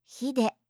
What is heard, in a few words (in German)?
"hide", japanische